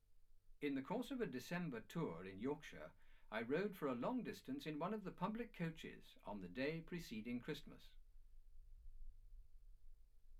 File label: male speech english